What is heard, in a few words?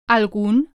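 "algun" Spanish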